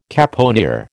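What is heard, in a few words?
"caponier", American English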